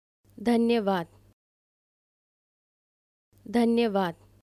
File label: "Thank you", Hindi